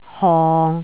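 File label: "hong6", Jyutping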